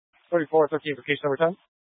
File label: Police Dispatch; Police 1 Dispatch